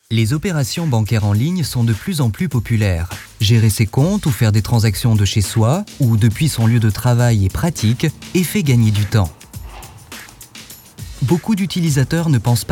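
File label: French narration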